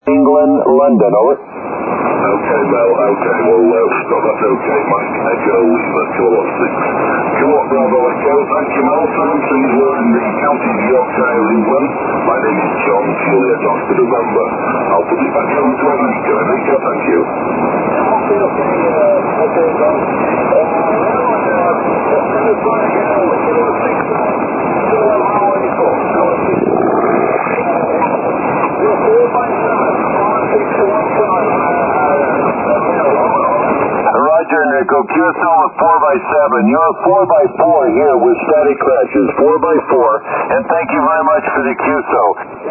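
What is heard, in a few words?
80m short path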